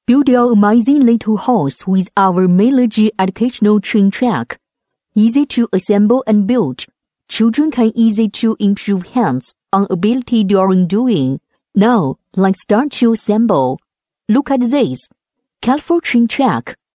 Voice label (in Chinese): 女 英文; 美乐智